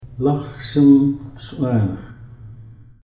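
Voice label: "laxsmxswaanx", Ts’msyen; the time to harvest herring eggs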